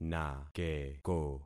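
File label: "nakeko", concatenated syllables; Affixing experiment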